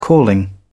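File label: "calling", British English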